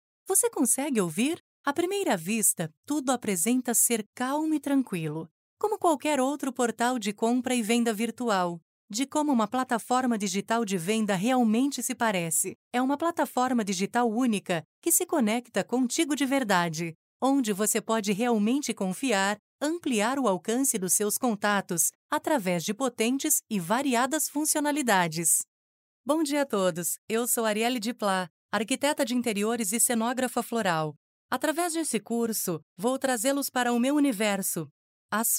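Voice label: Portuguese, Brazil, female, voice over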